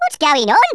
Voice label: "what the", Brummie; Worms Armageddon